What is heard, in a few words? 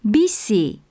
spanish race cycle; samsung YP-U5; Audio User Interface